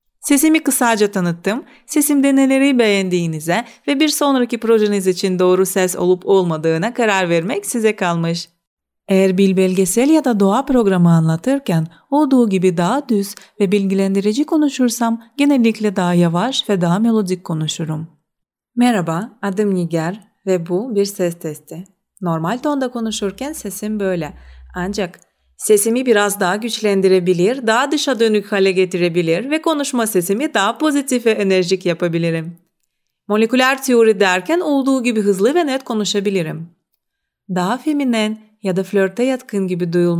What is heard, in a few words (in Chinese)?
土耳其语女; 外语